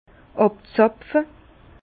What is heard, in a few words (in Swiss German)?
"abzapfe", Bas Rhin; vin; en courson 2°année